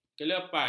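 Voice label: "kelepai", Malay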